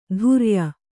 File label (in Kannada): "ತುರ್ಯ", Kannada